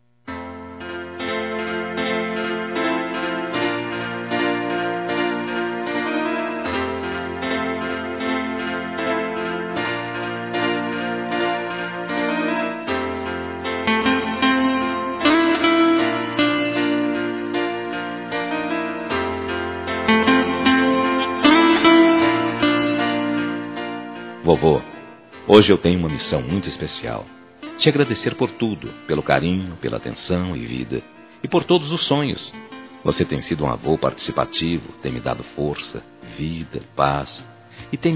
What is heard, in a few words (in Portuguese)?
Para Avô Masculino, Telemensagens, Dia dos Pais; Telemensagens 2017